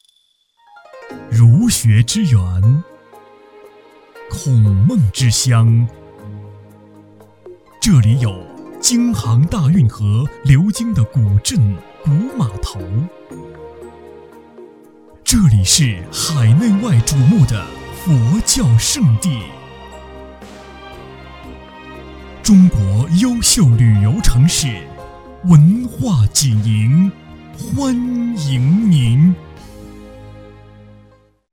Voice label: 城市宣传济宁

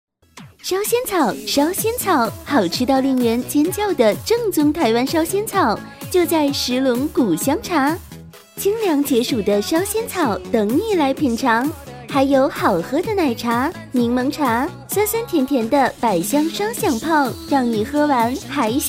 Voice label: 促销烧仙草

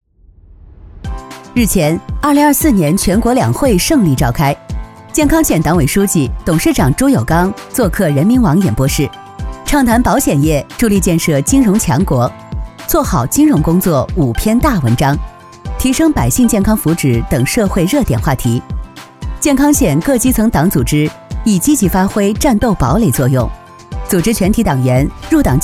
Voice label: 专题新闻; 中国平安

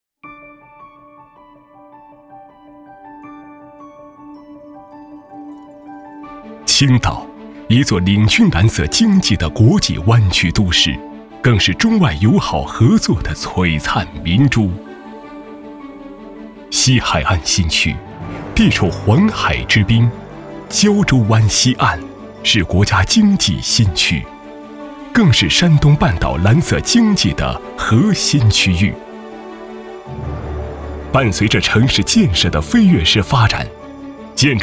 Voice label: 大气 宣传片; 兴华防水最新版

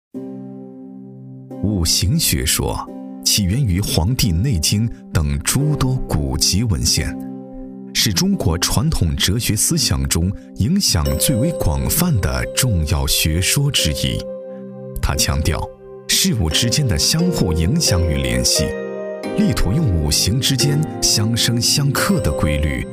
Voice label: 历史神秘感; 历史神秘感五行学说

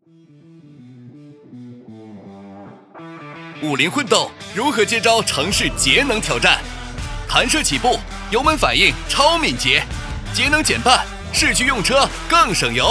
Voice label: 混动汽车广告; 五菱

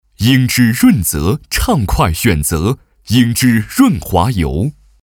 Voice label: 广告润滑油; 央视

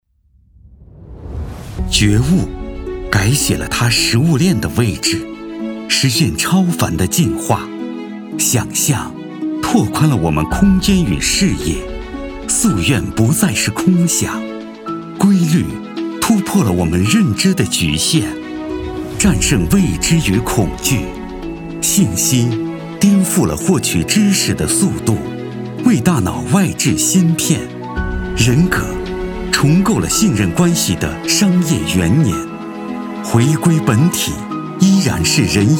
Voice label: 科技感解说

